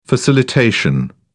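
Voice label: "facilitation", British English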